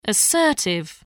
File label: "assertive", english